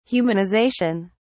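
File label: "humanisation", English, uk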